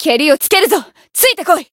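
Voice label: Voice limitbreak, Japanese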